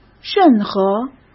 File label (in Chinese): "任何", Chinese; hsk